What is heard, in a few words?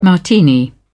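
"martini", British English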